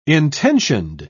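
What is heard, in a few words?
"intentioned", British English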